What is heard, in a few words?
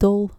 "dol" dutch